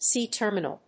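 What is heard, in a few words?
"C-Terminal", English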